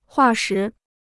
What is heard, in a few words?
"化石", Chinese